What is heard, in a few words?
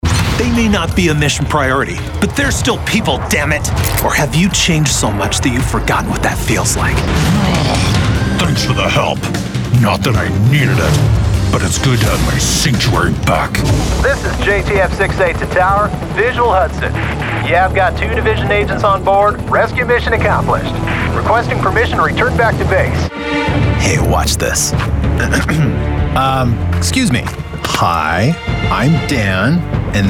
animation male video games